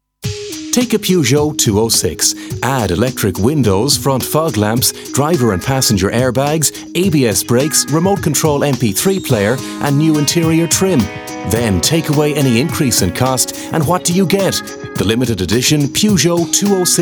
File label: voiceover artist commercial